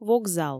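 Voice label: "вокзал", Komi, female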